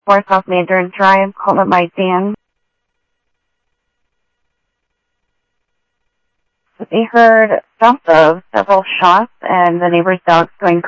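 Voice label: Sheriff East County, scanner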